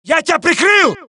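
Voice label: suppress, dialogue, Allies; mohaa; Mission 3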